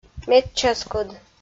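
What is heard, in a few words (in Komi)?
"медчӧскыд", Komi, female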